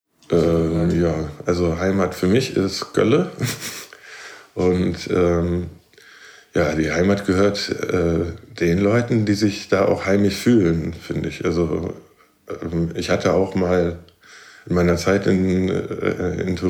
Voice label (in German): deutsch esperanto